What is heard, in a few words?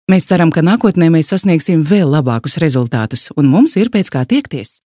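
Latvian, female, narration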